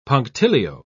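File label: "punctilio", British English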